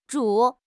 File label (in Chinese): "zhu3", 汉语口语; 汉语口语新时代-初级下